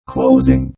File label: Robot Voice "Closing"; Robot Type 2 voice; Robot Sound Effects; Lynne Music